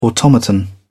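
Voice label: "automaton", English, British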